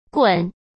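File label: "棍", Mandarin Chinese; hsk; chinese-flashcards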